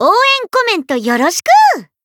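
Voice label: Voice encounter, Japanese; ciel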